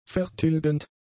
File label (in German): "vertilgend", German; adjectives